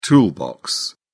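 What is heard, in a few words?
"toolbox", British English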